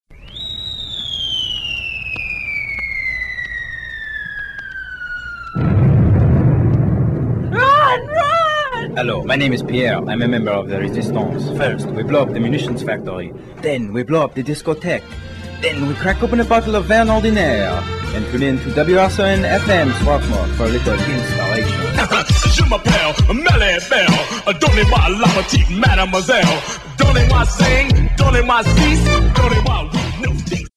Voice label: vintage call signs; wsrn; resistance high